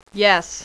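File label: "yes", female; voice; Web Clip Empire 150,000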